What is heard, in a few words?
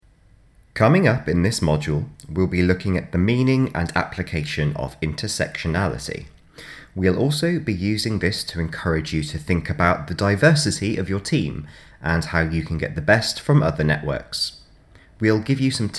Narration; Radius; Widening Your Network